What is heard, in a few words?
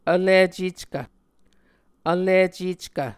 "vliceckv", Muscogee